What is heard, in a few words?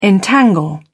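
"entangle", British English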